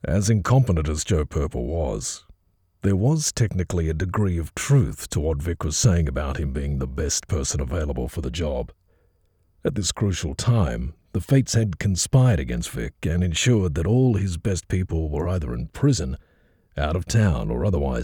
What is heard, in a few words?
audiobook